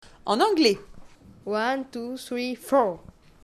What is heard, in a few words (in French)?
anglais